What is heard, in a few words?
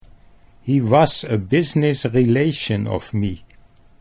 business relation me, Dutch accent